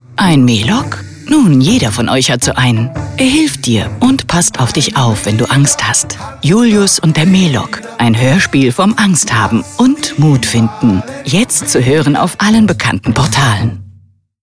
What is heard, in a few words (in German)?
Radiospot